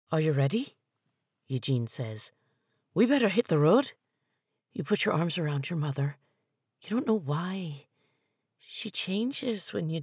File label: Irish